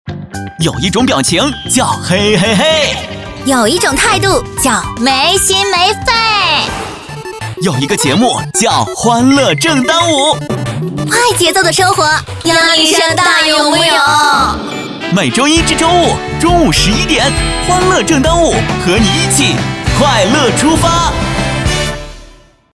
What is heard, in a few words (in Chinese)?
片花欢快活力